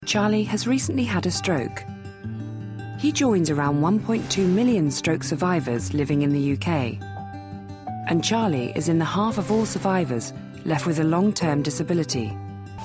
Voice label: corporate